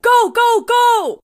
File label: "go go go", Female; Voiceover; war; Kenney's Sound Pack